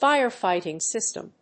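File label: "fire fighting system", English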